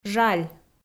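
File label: "жаль", Komi, female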